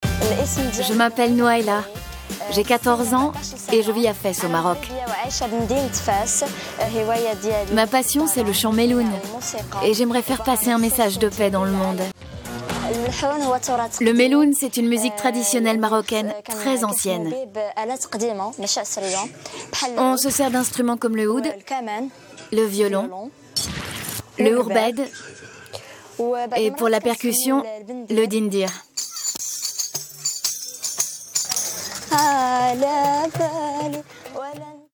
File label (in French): Rêve d'enfants voix de fille